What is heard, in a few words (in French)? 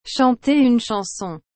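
"chanter une chanson", French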